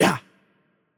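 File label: Hurt Light, Greek; el GR; VO; bloom minigame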